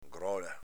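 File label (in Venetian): "grola", Veneto dialect; s.f. cornacchia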